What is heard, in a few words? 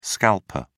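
"scalper", British English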